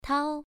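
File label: "tao" Mandarin Chinese, first tone, pronunciation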